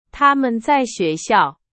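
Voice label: Chinese, example sentence; hsk1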